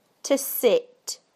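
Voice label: "to sit", British English; PictureDictionary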